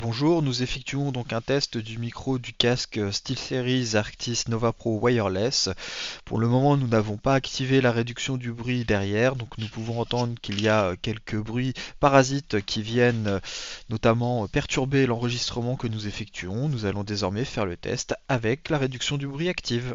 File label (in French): test micro casque steelseries arctis nova pro wireless